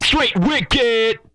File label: wicked, Vocal, R&B Dance, hip-hop; TB HIP-HOP